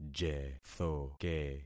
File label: "jethoke", concat syllables; Affixing experiment